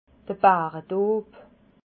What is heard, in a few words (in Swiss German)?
"baredoob", alsace